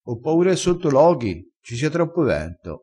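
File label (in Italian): "sbua", dialettale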